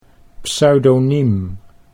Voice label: "pseudoniem", Dutch

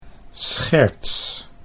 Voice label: "scherts", Dutch

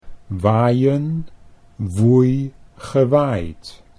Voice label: waaien woei gewaaid, Dutch

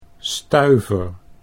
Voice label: "stuiver", Dutch; 'nickel.'

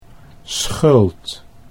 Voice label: "schuld", Dutch

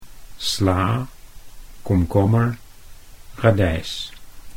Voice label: "sla", Dutch

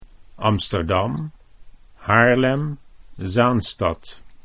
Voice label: Amsterdam, Dutch

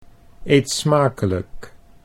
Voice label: "eet smakelijk", Dutch

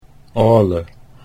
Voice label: "Ale", Dutch

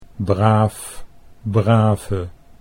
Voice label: braaf brave, Dutch

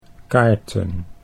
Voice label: "kaarten", Dutch

